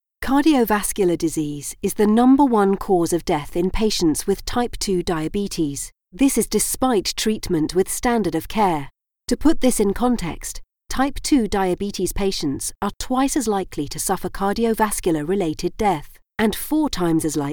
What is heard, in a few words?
Female British Medical Explainer